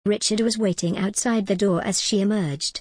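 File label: British English, Richard was waiting outside the door as she emerged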